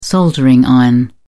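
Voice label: "soldering iron", English, British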